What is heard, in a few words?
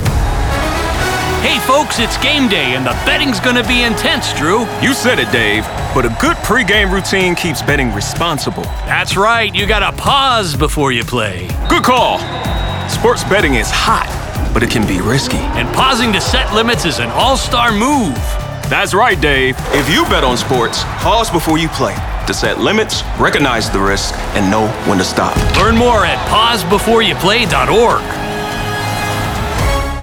Radio; PBYP Warmup